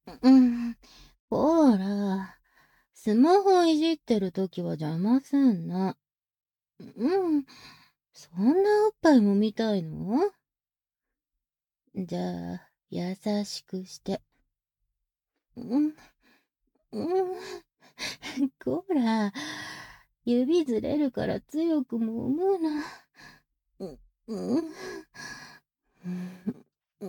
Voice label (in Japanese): イチャイチャピロートーク; SEあり; ASMR